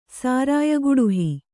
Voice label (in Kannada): "ಸಾರಾಯಗುಡುಹಿ", Kannada